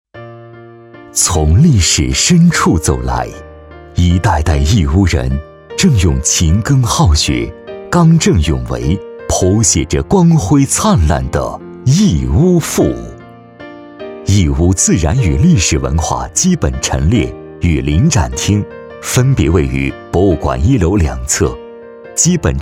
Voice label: 男 政府 自然讲述; 义乌

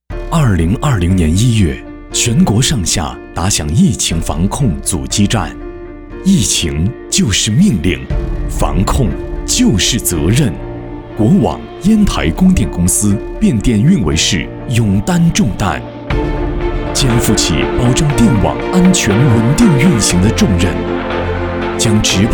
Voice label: 男 专题; 电网

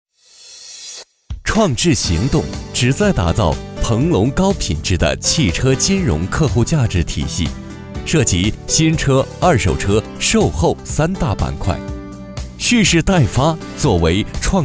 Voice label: 男 广告 轻快介绍

